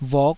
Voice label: "vuk5", taiwanese

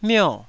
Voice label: "mio4", taiwanese

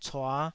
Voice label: "cua5", Taiwanese syllable

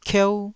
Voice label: "kieu1", taiwanese syllable

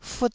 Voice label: "fut1", Taiwanese

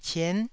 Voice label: "cien9", Taiwanese

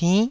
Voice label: "pinn9", Taiwanese

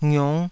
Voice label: "ngiung5", taiwanese syllables

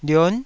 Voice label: "lion9", Taiwanese syllable

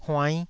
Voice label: "huainn5", Taiwanese syllable

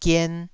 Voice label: "gien2", Taiwanese syllable

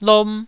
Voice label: "lom1", Taiwanese syllable

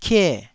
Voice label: "kie4", taiwanese syllable

